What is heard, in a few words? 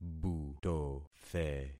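"butothe", concatenated syllables; Affixing experiment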